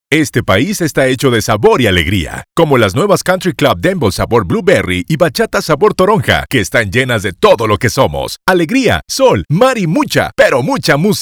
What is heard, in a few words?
spanish latin american promos